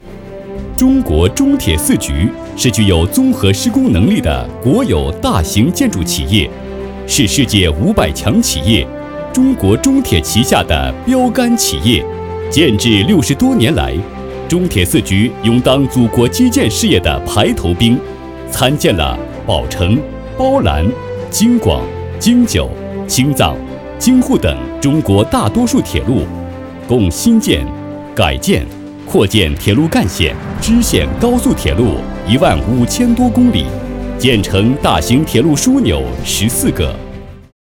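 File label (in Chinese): 【专题】男-大气激昂; 建筑集团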